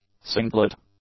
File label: "singlet", English